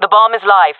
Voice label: bomb planted, fem; player vo jungle fem